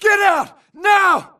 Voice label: grunt grenade response; imc; Titanfall 2

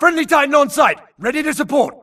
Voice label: grunt react Titanfall Friendly Arrives; imc; Titanfall 2

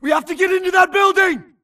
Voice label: grunt flee Player Titan Building Call; imc; Titanfall 2

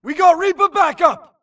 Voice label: grunt react Reaper Friendly Arrives; imc; Titanfall 2

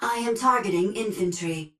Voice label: titan Vanguard auto Engage Grunt; Titanfall 2

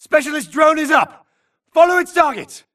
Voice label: grunt react drone spawn friendly; imc; Titanfall 2

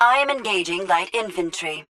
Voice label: titan Vanguard auto Engage Grunt; Titanfall 2

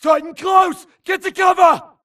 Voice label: grunt flee Player Titan Call; imc; Titanfall 2

